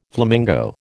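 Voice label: "flamingo", American English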